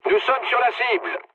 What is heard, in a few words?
near waypoint mood low, speech fr; War Thunder